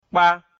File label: "kpa", mina